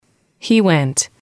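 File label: "he went", English, female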